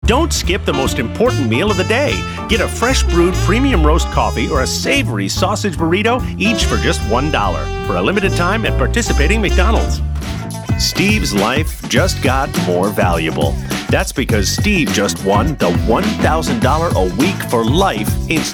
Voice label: VO Commercial